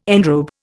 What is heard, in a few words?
"enrobe", Indian